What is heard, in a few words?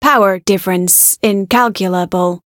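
Voice encounter fear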